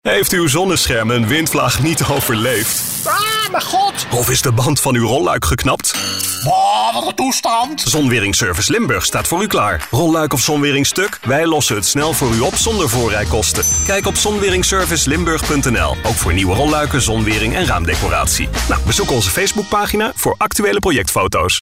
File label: radio commercial medium hard sell